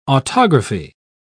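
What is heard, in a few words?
"autography", English, British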